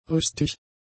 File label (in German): "rüstig", German; adjectives